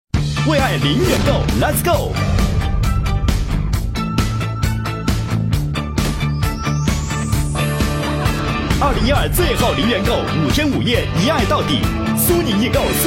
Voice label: 男 广告片; 苏宁易购双12

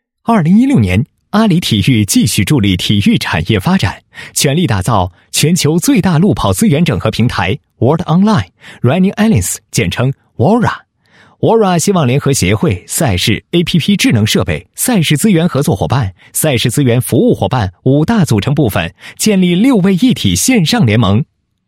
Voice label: 男 宣传广告 平台介绍; 国际网络路跑联盟WORA平台介绍

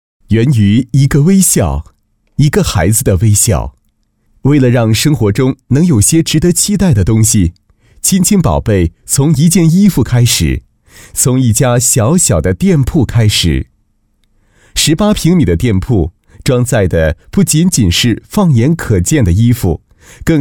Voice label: 专题, 国语男声配音; 温馨感觉; 亲亲宝贝

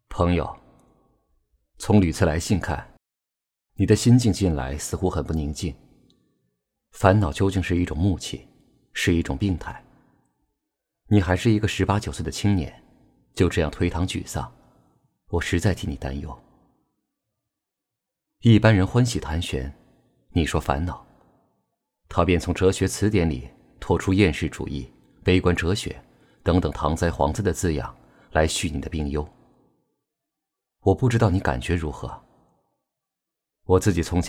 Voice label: 男, 电台; 一封来信

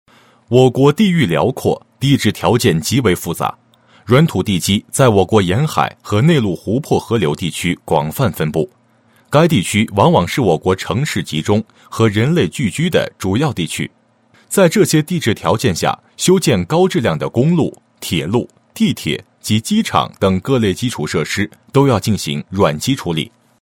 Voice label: 男 解说, 配音; 钉形双向搅拌桩施工工法

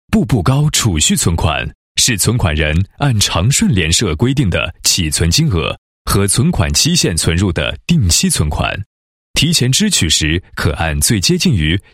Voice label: 男-宣传广告; 步步高解说词

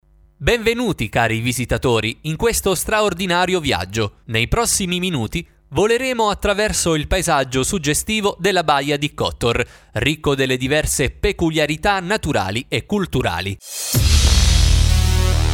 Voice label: 意大利语男, 声音合辑

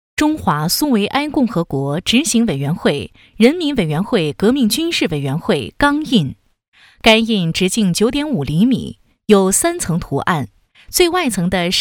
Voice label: 解说 景区

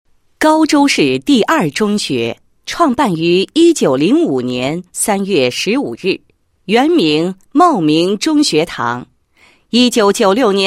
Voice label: 女 工作纪实, 配音; 语言文字规范化工作纪实

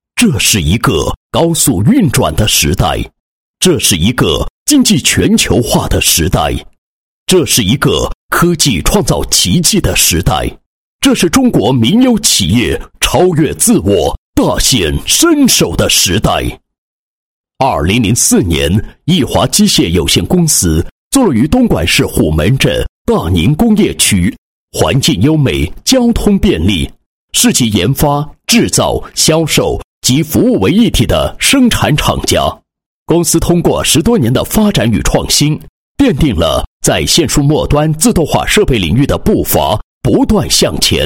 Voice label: 男 解说词; 益华机械宣传片

